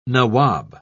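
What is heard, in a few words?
"nawab", British English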